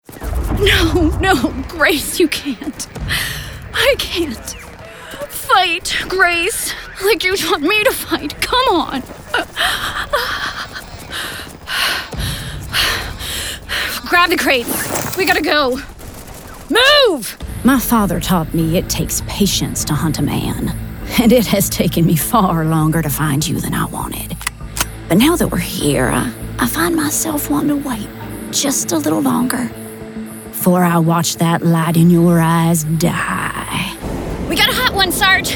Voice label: video game, voice acting; global voice acting academy